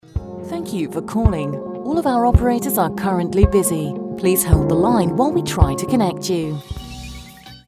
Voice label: ivr